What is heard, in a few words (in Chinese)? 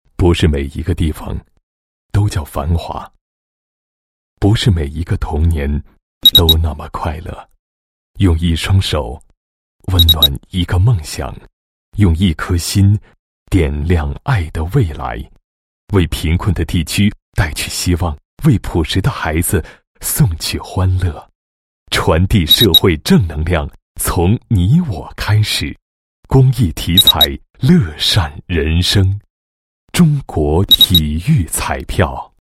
男 公益广告, 国语男声配音; 中国体育彩票; 传递正能量从你我开始